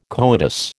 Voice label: "coitus", American